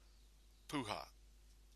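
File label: "puha", Māori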